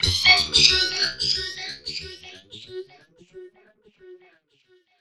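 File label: effects talk, Funky Vocals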